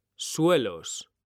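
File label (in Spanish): "suelos", male; mekanta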